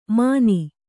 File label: "ಮಾನಿ", Kannada